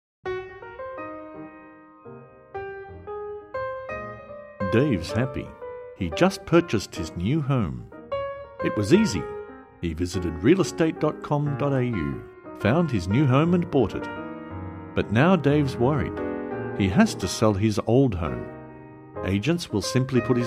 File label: with Music; Real Private dot com dot au